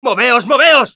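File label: Scout move up, Spanish; ¡Moveos, moveos!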